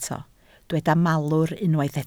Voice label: "malwr", Welsh

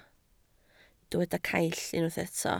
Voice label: "caill", Welsh

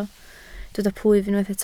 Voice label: "plwyf", Welsh

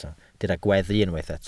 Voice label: "gweddu", Welsh

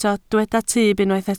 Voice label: "tyb", Welsh

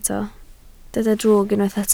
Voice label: "drwg", Welsh

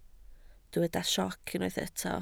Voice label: "sioc", Welsh

